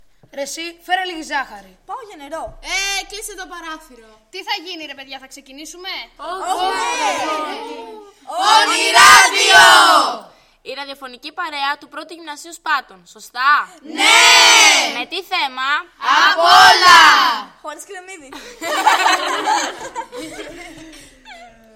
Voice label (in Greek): spot; Ονειράδιο Γυμ. Σπάτων